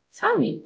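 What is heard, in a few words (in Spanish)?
Voice Acting, es, female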